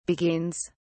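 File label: "beguines", en, uk British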